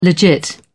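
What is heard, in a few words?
"legit", British English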